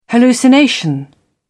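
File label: "hallucination", British English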